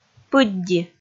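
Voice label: "пыдди", Komi, female; kpv audio dict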